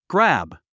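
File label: "grab", English; grǽb グラブ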